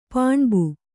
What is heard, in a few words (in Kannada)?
"ಪಾಣ್ಬ", Kannada